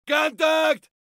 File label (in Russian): "kontakt" scream; tarkov